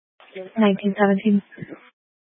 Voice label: Grand Junction Fire Department Dispatch